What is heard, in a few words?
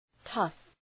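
"tough", English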